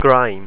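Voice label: "greim", Irish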